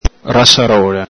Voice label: "rasarola", Venetian

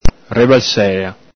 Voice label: "rebalsela", Venetian

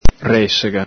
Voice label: "resega", Venetian

